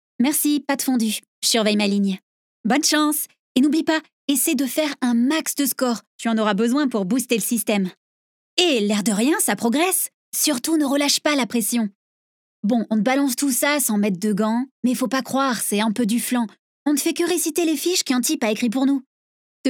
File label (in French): Voix Perso interactif jeune punchy